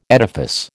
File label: "edifice", American